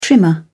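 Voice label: "trimmer", British English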